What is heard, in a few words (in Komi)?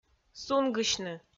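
"сунгысьны", Komi, female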